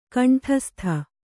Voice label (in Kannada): "ಗಂಡಾಸ್ಥಿ", kannada